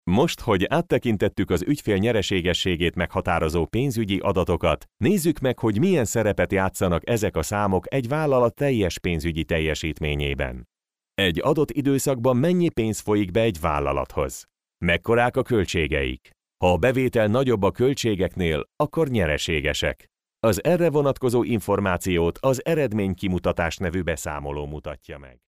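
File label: Hungarian, male, narration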